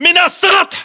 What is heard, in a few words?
location rooftop, localized english battlechatter